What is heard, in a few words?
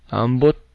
i dont know, cebuano